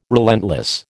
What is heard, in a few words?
"relentless", American